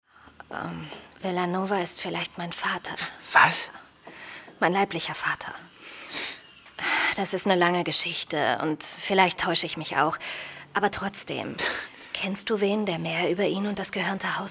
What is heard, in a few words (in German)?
Hoerspiel; Imperator Staffel 2